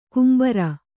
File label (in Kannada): "ಕೆಂಬಾರ", Kannada